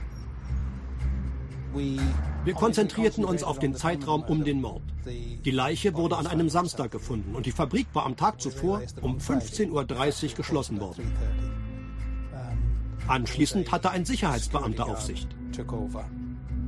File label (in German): voiceover; der entscheidende beweis